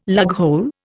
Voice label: "lughole", Indian

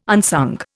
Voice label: "unsung", Indian

